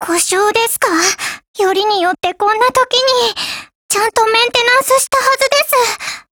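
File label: break, Japanese; ChauchatM1915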